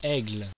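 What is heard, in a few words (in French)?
"aigle" French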